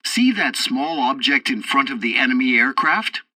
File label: tutorial fighter fight, english; War Thunder